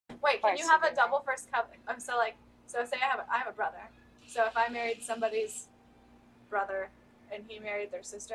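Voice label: USA North Carolina Tidewater Southern Female 60s Immersion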